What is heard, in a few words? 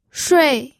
"shui4", pinyin